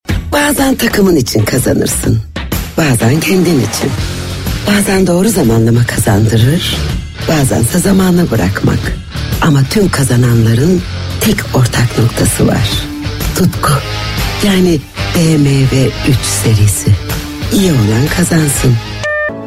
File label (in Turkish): reklam